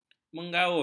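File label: "menggaul", Malay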